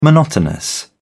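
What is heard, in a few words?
"monotonous", British English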